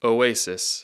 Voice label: "oasis", English